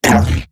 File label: Pain, Robot Large; Warframe; Eximus Corpus Robotic Large; Battle Barks